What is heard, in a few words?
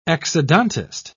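"exodontist", British English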